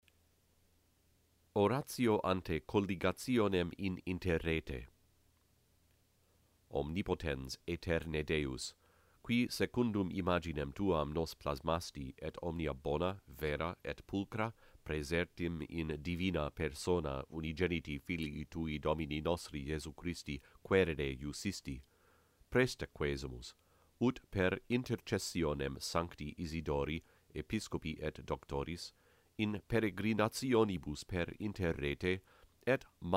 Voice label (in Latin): internet prayer latin